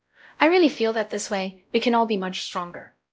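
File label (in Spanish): Voice Acting, es, female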